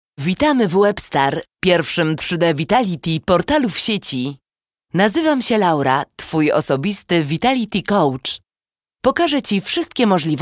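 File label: Polish corporate narration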